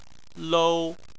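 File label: "lou", Chinese, first tone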